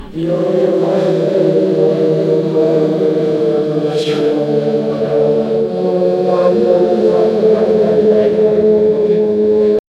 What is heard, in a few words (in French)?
des voix murmurent des, praying